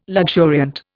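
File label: "luxuriant", Indian English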